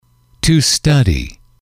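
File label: to study, English, male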